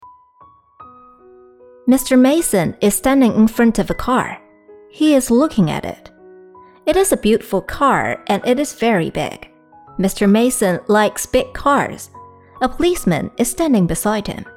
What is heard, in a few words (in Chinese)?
美式英语朗读